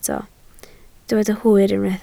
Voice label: "hwyr" Welsh

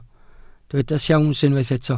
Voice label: "siawns", Welsh

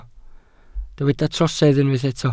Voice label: "trosedd", Welsh

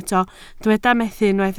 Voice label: "methu" Welsh

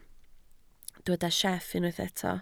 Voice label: "sieff", Welsh

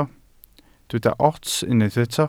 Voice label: "ots", Welsh

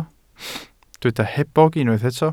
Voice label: "hebog", Welsh

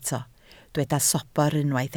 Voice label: "sobr", Welsh

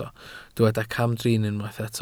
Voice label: "camdrin", Welsh

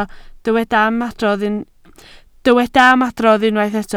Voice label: "ymadrodd", Welsh

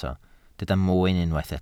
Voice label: "mwyn", Welsh

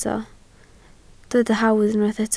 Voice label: "hawdd" Welsh

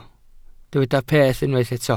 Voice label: "peth" Welsh

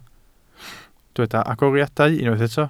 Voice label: "agoriadau", Welsh

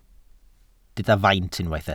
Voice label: "faint", Welsh

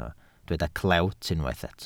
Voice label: "clewt" Welsh

